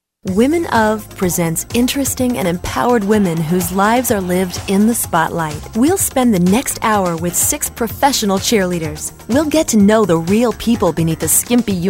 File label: Narration